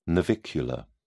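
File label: "navicular", British English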